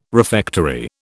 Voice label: "refectory", British

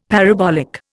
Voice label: "parabolic", Indian; Dictionary

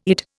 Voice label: "Eid", Indian

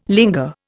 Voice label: "linger", Indian

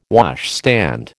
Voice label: "washstand", American

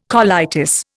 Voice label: "colitis", Indian

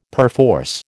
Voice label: "perforce", American

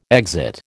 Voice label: "exit", American

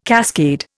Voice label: "cascade", Indian

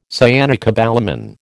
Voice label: "cyanocobalamin", American

